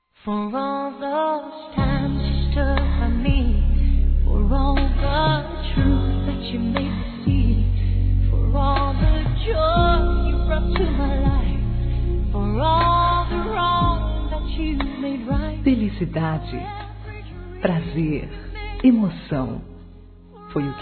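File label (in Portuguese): NOITE ESPECIAL-Feminino; Telemensagens; Telemensagens 2017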